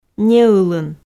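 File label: "неылын", Komi, female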